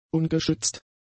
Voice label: "ungeschützt", German; adjectives